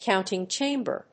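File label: "counting chamber", English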